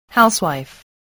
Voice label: "housewife", voice spelling